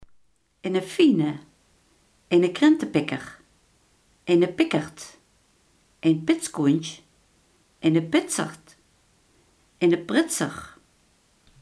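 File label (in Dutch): iemand die kieskeurig is, Limburgse gesproken woordenboeken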